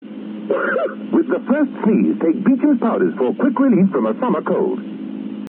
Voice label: advert; Beachams Powders